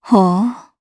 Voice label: Vox Happy, jp; Valance; kings raid

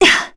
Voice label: Landing, kr, voices; heroes; Yuria; kings raid

